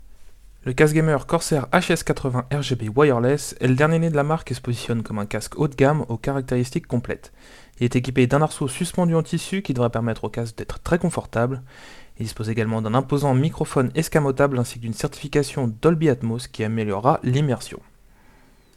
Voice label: soundtest corsair hs80 wireless